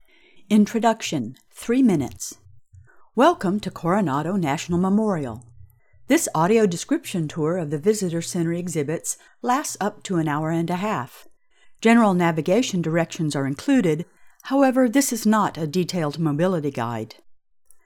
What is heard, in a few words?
female